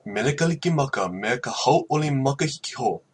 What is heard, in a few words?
christmas hawaiian